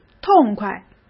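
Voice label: "痛快", Mandarin Chinese; chinese-flashcards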